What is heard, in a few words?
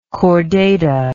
"chordata", English